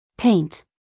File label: "paint", US English, female